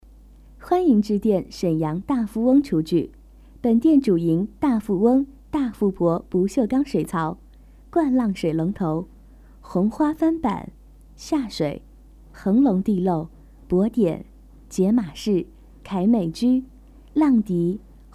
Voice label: girl